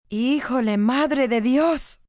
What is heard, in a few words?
Mother Of God, Reaction, negative, female, Spanish; (oh, my God)